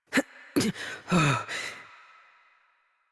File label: Horse Dismount Adult; Warframe; Duviri